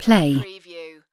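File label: "play", British female; playcontrols; lynne publishing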